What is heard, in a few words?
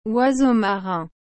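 "oiseau marin", French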